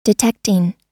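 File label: "detecting", US English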